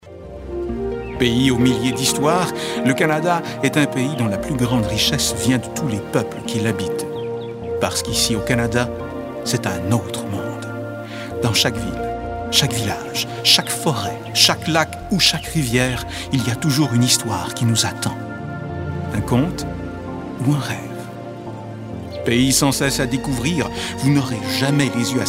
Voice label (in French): Voix pub; Canada